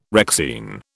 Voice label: "Rexine", British